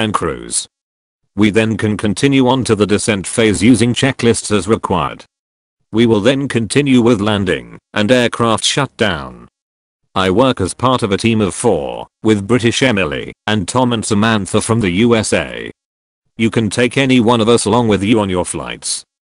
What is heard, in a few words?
cockpit chatter; f1chatter